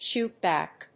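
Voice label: "shoot back", English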